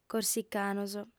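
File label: "corsicanos", female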